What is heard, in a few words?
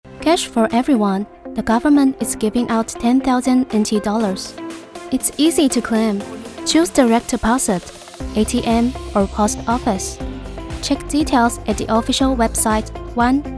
廣播 英語版; 全民+1 政府相挺政策宣導篇廣播-英語版